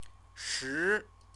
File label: "shi", Chinese